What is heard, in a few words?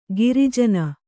"ಗಿರಿಜನ", Kannada; giri jana; noun